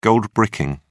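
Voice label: "goldbricking", British English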